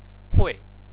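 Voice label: "hui4", Chinese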